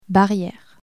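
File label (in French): "barriere", French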